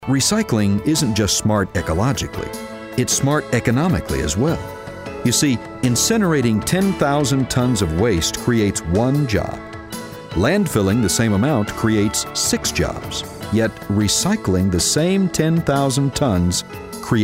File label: Narr